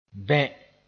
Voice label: "bẽ", moore

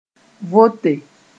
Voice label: "vote", moore

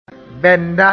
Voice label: "bɛnda", moore; underpants

